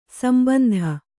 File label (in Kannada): "ಸಂಬಂಧಿ", Kannada